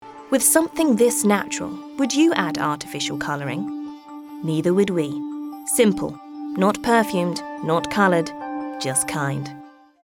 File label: simple RP English ad